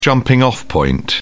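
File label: "jumping off point", British English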